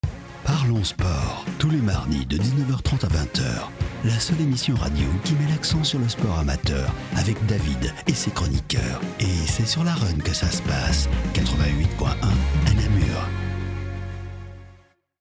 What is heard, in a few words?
Radio teaser sport